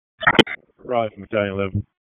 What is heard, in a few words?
FIRE OPS, p25